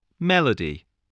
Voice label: "melody", spelling

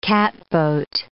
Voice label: "catboat", English

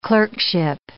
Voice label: "clerkship", English

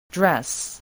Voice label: "dress", English

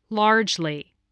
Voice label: "largely", English

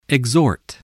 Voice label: "exhort", voice

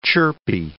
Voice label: "chirpy", English

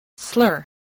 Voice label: "slur", English

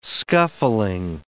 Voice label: "scuffling", English

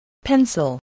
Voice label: "pencil", English, voice

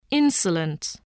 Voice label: "insolent", voice spelling

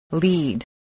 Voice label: "lead", spelling

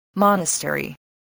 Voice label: "monastery", voice, spelling

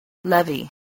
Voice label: "levy", spelling

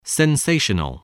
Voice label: "sensational", english spelling

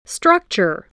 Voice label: "structure", spelling

